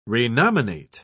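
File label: "renominate", English, British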